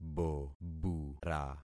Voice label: "bobura", concat syllables; Affixing experiment

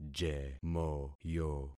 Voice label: "jemoyo", concat syllables; Affixing experiment

